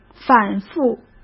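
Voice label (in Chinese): "反复", Mandarin Chinese; chinese-flashcards; hsk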